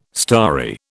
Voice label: "starry", British